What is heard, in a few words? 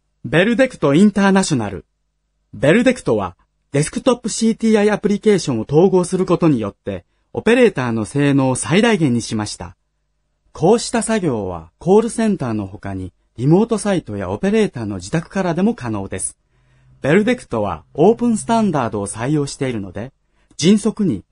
Commercials, Japanese, male